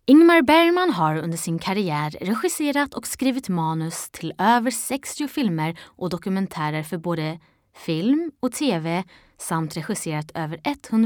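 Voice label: Swedish, General; GoLocalise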